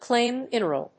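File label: "CLAY MINERAL", English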